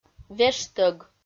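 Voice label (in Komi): "вештӧг", Komi, female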